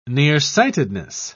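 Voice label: "nearsightedness", British English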